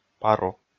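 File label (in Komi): "пару", Komi, male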